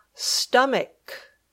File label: "stomach", English